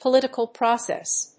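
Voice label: "political process", English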